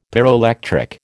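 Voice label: "pyroelectric", American English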